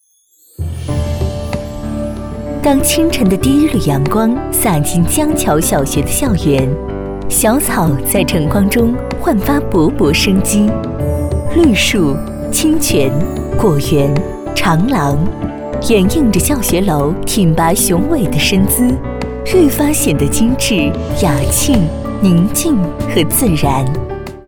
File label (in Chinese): 专题 学校 小学介绍 时尚; 江桥小学介绍